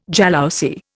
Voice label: "jalousie", Indian